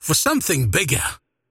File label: last hit pain; dota; largo